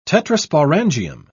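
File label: "tetrasporangium", English, British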